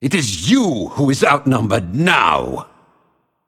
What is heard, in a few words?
Male Undead Trigger